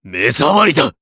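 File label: Vox Skill, Japanese; Clause ice; kingsraid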